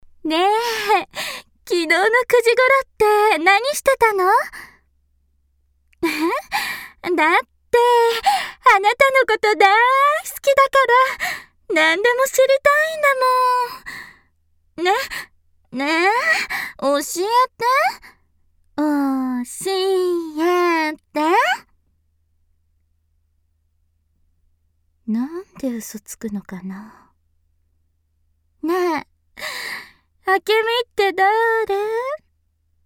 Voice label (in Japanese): yandere